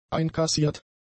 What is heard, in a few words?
"einkassiert", German; adjectives